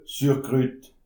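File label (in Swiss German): "surkrut", Alsatian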